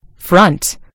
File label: "front", en US; gcompris